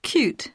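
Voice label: "cute", English; poitiers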